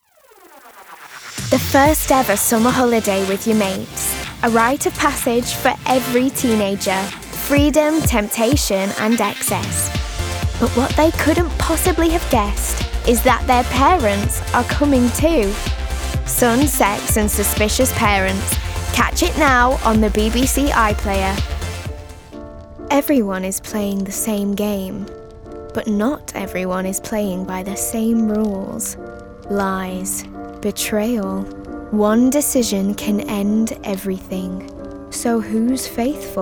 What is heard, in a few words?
Manchester, Promo, Cool, Energetic, Confident, Bold